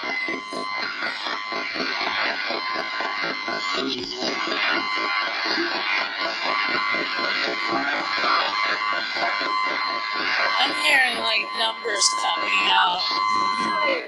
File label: radio voices how many are you